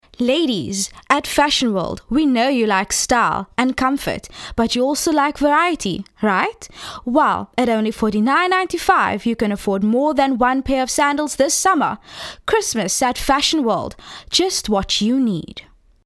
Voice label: hard sell